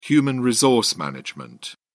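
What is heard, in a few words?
"hrm", British English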